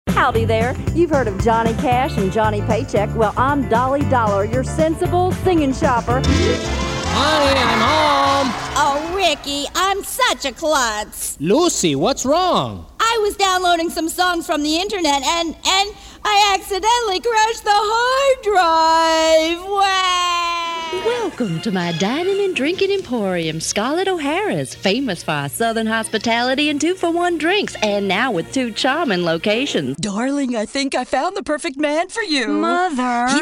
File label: english us and canada female generic